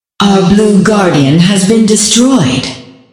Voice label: female ally blue guardian destroyed, announcer female; patron